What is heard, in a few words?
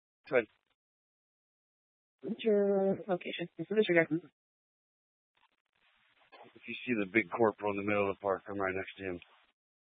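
Police Dispatch, Scanner audio; Police 1 Dispatch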